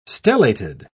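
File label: "stellated", British English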